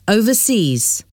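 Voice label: "overseas", English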